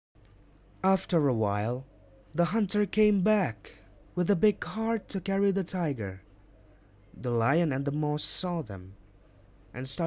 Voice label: English Character; BAHASA INGGRIS

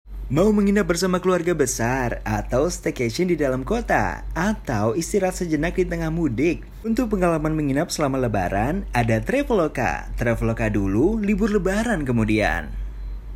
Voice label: CASUAL INDONESIA

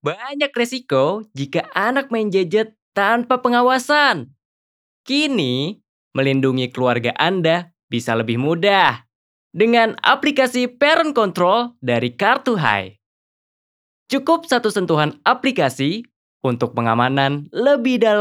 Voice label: CASUAL INDONESIA

